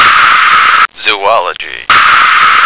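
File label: "zoology", American English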